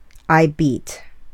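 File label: "I beat", English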